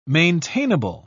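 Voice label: "maintainable", British English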